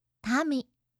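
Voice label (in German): "tami", japanische Mädchennamen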